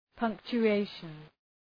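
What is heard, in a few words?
"punctuation", English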